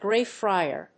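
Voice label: "Gray Friar", English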